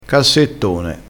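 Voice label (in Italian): "cassettone", dialettale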